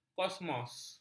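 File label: "kosmos", Malay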